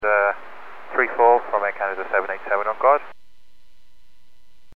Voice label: Aviation 121.5 Emergency